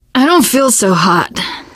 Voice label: going to die, teengirl; voice; source engine; left 4 dead 2; survivor